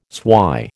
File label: "swy", American